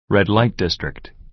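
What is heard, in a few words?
"red-light district", American English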